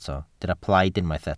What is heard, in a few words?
"plaid", Welsh